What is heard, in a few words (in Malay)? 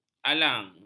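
"alang", Malay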